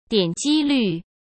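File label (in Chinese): "点击率", Mandarin Chinese; hsk; chinese-flashcards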